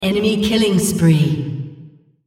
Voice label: female Killing Spree

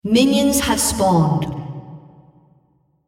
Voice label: female, On Minions Spawn

